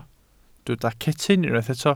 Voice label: "cetyn", Welsh

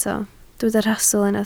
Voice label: "rhastl", Welsh

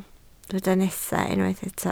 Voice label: "nesaf", Welsh

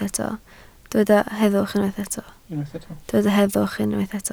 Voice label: "heddwch", Welsh

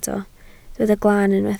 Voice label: "glaan", Welsh

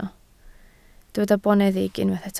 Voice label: "boneddig", Welsh

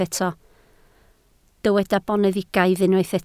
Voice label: "boneddigaidd", Welsh

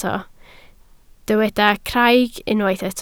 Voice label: "craig", Welsh

